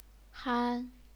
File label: "han1", chinese pinyin